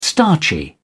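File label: "starchy", British English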